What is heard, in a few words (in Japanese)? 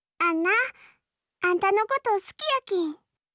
好きです 香川; 伊織